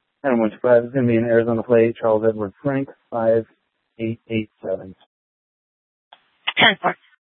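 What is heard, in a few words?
Sheriff, Parks, Sheriff radio scanner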